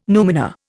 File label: "numina", Indian